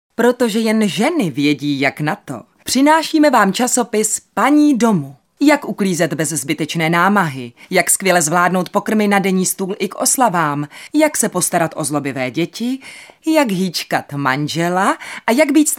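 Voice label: female Czech commercial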